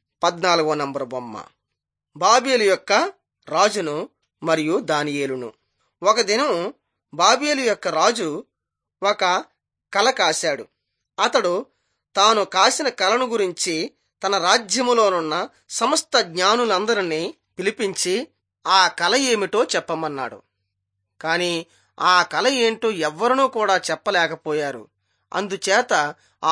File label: telugu bangladesh; on trial for god